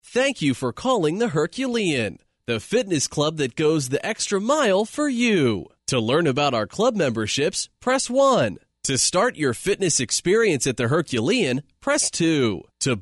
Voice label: male US telephone; uk sound studios